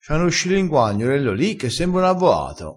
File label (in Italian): "scilinguagnolo", dialettale